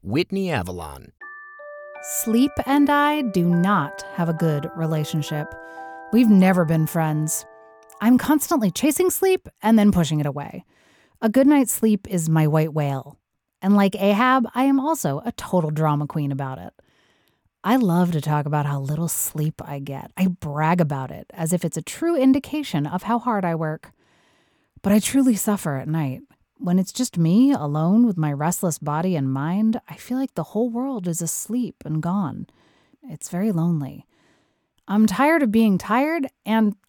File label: audiobook narration